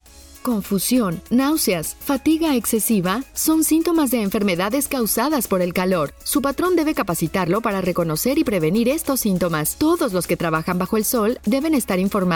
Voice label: Spanish Radio